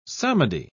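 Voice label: "psalmody", British English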